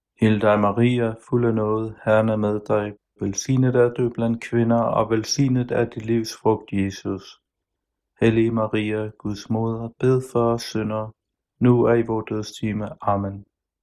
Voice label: hail mary danish